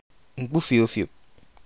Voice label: butterfly var Nkpufiopufiop Nkpufiiufiop, ibibio